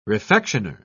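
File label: "refectioner", English, uk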